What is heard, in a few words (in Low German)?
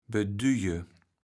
"bedüjje", kaevels platt